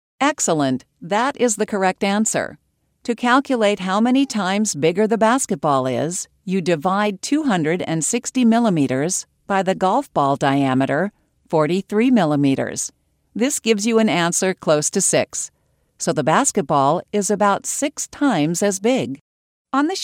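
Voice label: US English, e-learning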